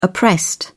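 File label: "oppressed", British English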